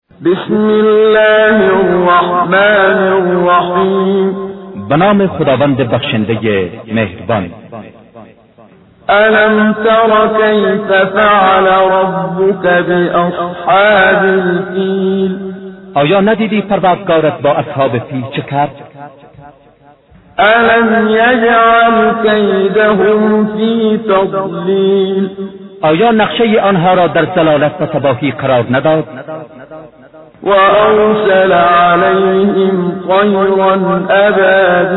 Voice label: Surah Farsi; Menshay Translation